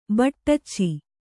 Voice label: "ಬಟ್ಟಚ್ಚಿ", Kannada; baṭṭacci; noun; a wide, round eye.

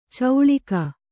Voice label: "ಚೌಳಿಕ", Kannada

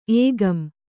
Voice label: "ಏಗಂ", Kannada

